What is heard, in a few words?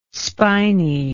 "spiny", English